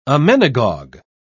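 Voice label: "emmenagogue", British English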